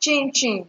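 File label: "chinchin", Nigerian Pidgin, female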